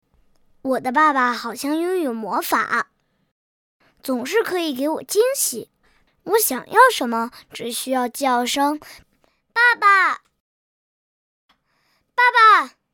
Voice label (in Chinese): 女 男真童; 爸爸; 真童配音